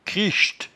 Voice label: "chricht", patois jura

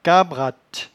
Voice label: "cabratte", patois jura

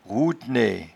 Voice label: "rouetnaie", patois jura

